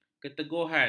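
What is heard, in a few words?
"keteguhan", Malay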